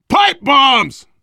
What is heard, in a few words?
spot grenades, voice, biker; Left 4 Dead 2; survivor